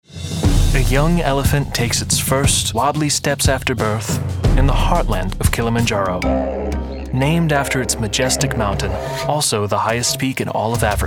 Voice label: Narration